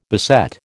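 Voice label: "beset", American English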